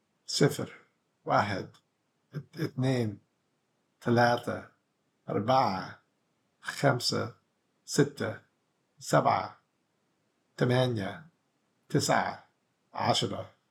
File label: numbers 11 20 Egyptian